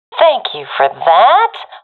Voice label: thankful, professional fem; vo; Counter Strike Model Pack; CS:GO